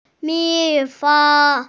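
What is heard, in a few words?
"mi4feng1", Mandarin Chinese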